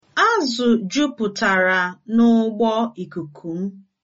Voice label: hovercraft, igbo; Azụ juputara n'ụgbọ ikuku m